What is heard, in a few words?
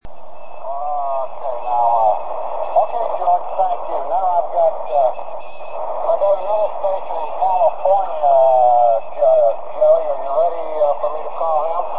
Mode B SSB QSO; AO-13